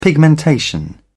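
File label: "pigmentation", British English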